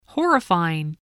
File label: "horrifying", voice spelling